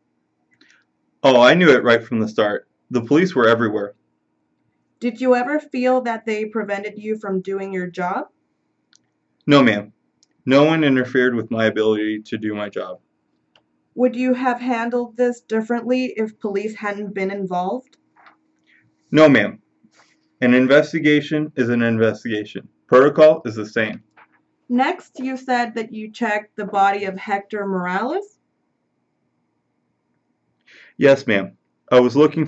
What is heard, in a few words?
Consecutive Medical Examiner English